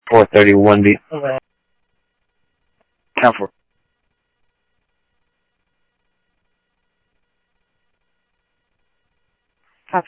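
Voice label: Sheriff East County, scanner audio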